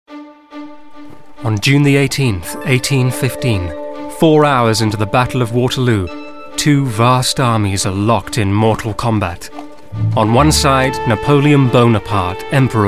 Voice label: Narrative